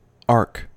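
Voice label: "ark", US English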